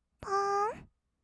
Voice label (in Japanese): "ぽーん", kawaii voice; ASMR; 色々なワンショット